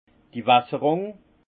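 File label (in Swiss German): "wasserrung", alsace Haut-Rhin; irrigation; vin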